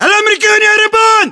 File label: threat infantry exposed, battle chatter, english